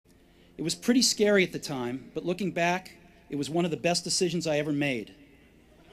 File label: Commencement Address; Steve Jobs; 2005; Stanford